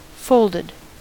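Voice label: "folded" US English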